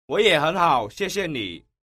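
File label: "woyehenhao, xiexie ni", Mandarin Chinese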